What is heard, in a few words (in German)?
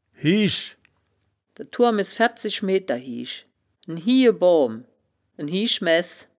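"hiesch", wittlicher woerterbuch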